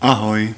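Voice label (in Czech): "ahoj", Czech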